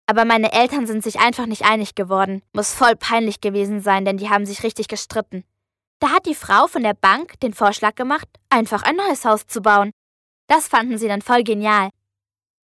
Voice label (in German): Frech